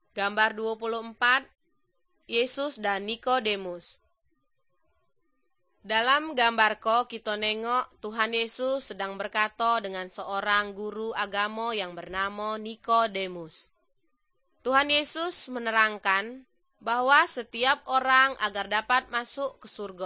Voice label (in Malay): good news malay jambi